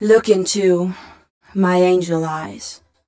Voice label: fem spo, Vocals